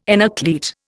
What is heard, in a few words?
"enucleate", Indian